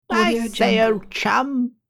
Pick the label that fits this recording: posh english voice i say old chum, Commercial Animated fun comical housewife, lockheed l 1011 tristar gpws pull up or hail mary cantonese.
posh english voice i say old chum